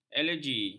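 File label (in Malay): "alergi", Malay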